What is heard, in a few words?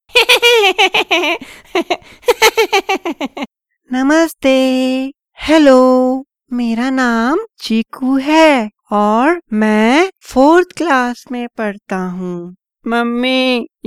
kids voice over